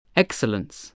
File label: "excellence", voice, english